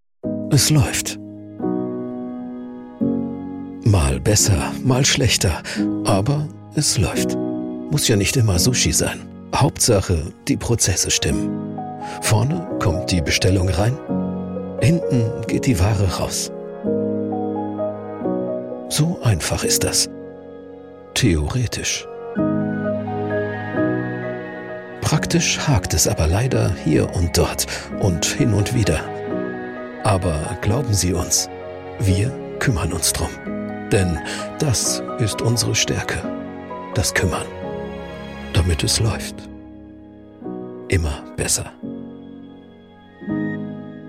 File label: corporate smart; Unitec Es lauft